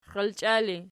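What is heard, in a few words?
"xialchia" ergative, Archi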